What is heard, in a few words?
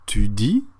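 "dire tu", French; frans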